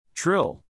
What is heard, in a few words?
"trill", English, neural; animal sounds in english; Birds; Stephen